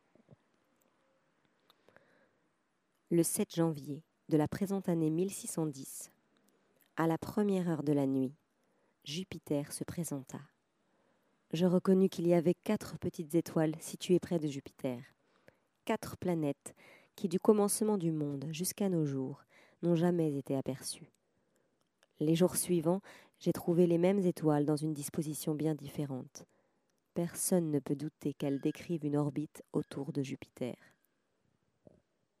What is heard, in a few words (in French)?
voix française scientifique; Jupiter